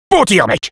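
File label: Sniper nice shot, French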